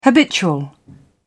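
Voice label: "habitual", British English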